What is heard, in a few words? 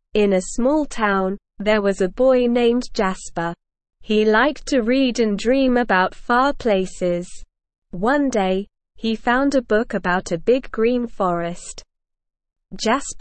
ESL Short Stories for Kids Beginner SLOW Reading; Jaspers Jungle Journey